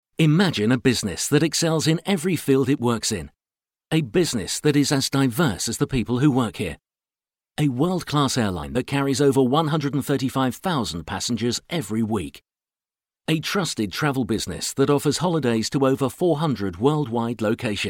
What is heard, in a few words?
Air VO; Monach